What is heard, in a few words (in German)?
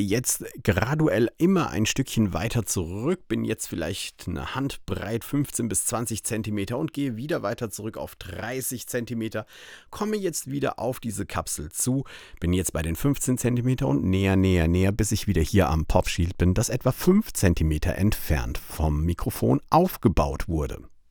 nahbesprechung; Blue Microphones Bluebird SL